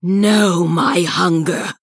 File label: Female Sanlayn Attack